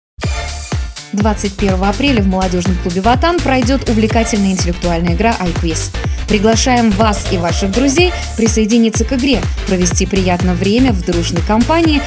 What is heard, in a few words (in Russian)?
РЕКЛАМА; QUIZ; БАВЛЫ